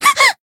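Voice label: damaged, Japanese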